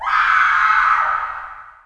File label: woman; Mafie 1 cz